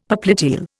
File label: "popliteal", Indian; Dictionary